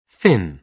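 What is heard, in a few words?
"fin", British English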